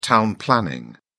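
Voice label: "town planning", British English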